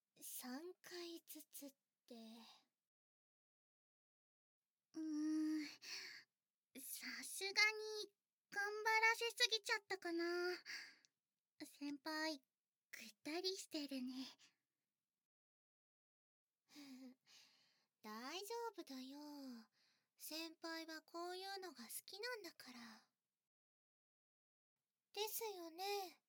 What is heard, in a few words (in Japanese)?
ピロートーク; ふたりのヤンデレ後輩にゆるあま監禁されるバイノーラル